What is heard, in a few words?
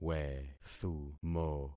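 "wethumo", concatenated syllables; Affixing experiment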